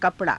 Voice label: "kapa_raa", Hindi

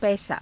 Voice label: "paisaa", Hindi

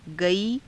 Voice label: "gaii", Hindi

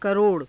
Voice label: "karo_ra", Hindi

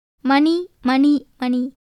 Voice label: Tamil

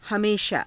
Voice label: "hameshaa", Hindi

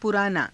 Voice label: "puraanaa", Hindi

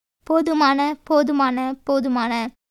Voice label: Tamil

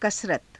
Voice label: "kasarata", Hindi

